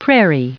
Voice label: "prairie", en-us

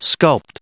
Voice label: "sculpt", en-us

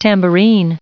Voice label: "tambourine" en-us recite

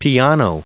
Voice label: "piano", en-us

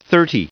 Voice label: "thirty" en-us recite

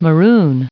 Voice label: "maroon", en-us

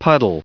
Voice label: "puddle", en-us

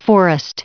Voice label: "forest", en-us

